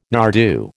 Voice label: "nardoo", American